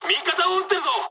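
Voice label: player friendly fire mood high, speech japanese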